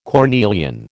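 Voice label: "cornelian", American English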